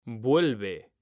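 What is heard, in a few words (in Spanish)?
"VUELVE", Spanish, male; mekanta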